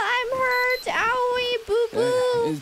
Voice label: I'm hurt booboo

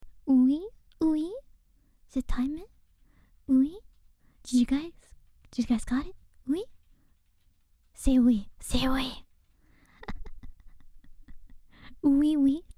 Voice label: ASMR oui

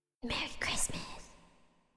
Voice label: whispers Merry Christmas

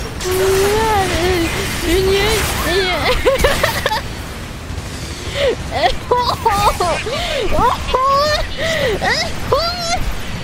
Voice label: crying about it; noises; in gundam

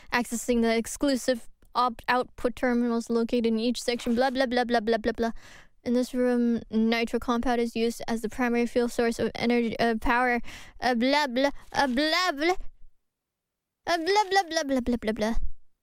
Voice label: reading

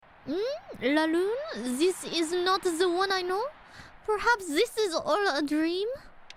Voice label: la lune plus french accent

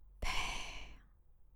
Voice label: whispering

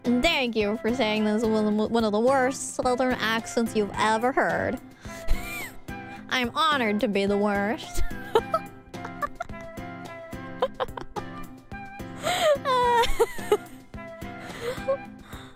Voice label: southern accent

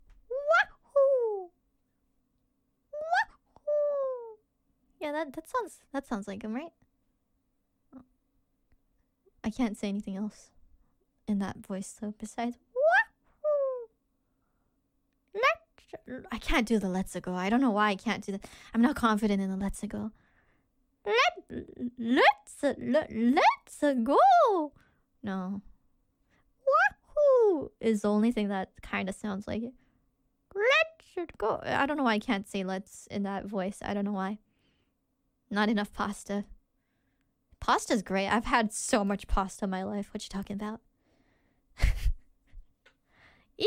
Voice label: Attempt to impersonate Mario

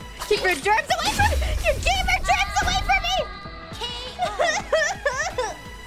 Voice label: Scream keep your gamer germs away from me; IRyScream

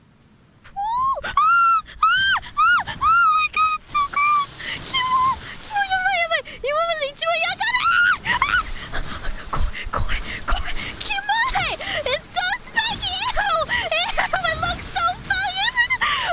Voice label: absolute mental breakdown, Scream; IRyScream

